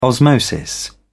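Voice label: "osmosis", British English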